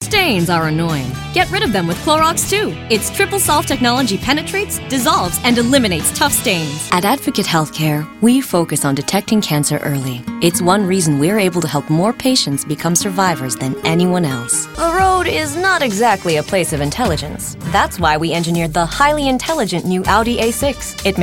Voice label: commercial